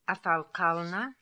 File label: "Afalkalna", pronounciations; Fourth Way; Beelzebub